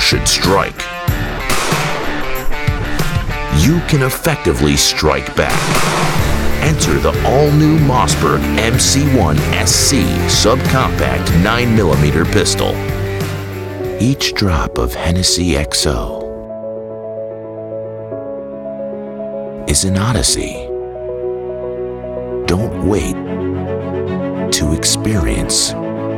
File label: Commercial